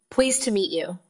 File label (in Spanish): Voice Acting es